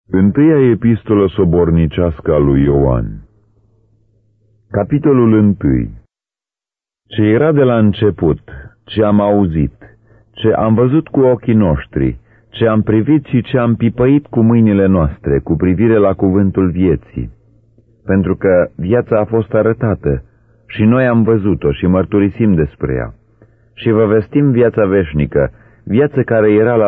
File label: romanian 1 john